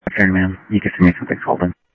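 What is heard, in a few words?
Sheriff East County, scanner audio trunk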